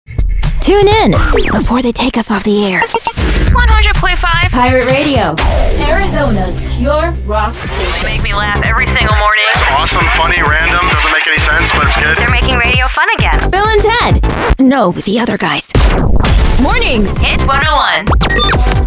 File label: Imaging